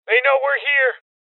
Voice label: Male Young; Alarm State